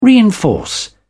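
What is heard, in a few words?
"reinforce", British English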